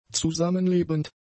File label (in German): "zusammenlebend", German; adjectives